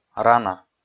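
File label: "рана", Komi, male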